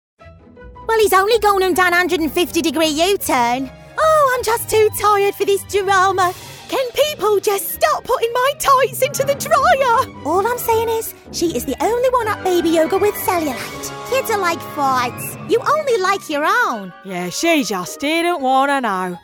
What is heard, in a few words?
Animation